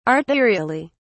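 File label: "arterially", British English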